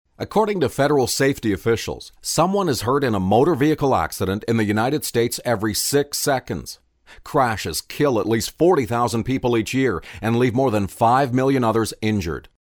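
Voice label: Canadian English, male, voice over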